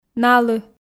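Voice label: "налы", Komi, female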